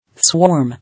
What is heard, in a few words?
"Swarm", English